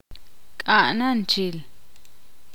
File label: ixil; estar enojado